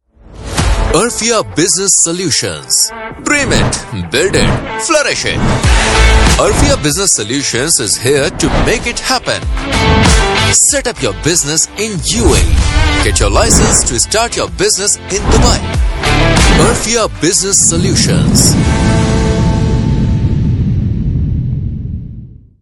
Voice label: deep male voice over